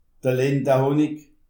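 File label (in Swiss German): "linde", Alsatian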